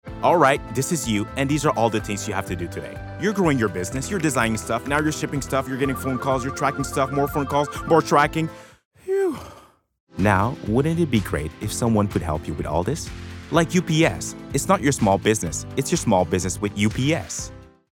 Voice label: Commercial EN